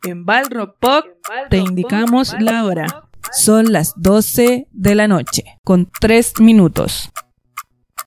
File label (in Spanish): locucion